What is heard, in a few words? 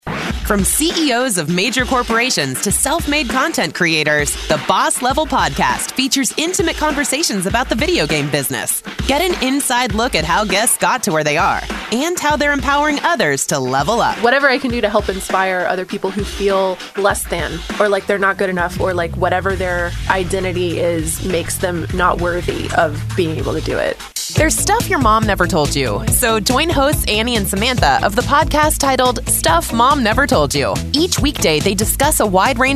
english american podcasts